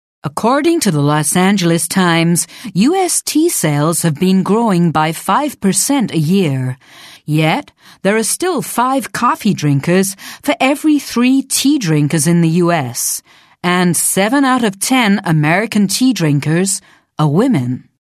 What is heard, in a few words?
American